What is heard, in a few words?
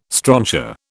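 "strontia", British English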